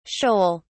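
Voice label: "sheol", British English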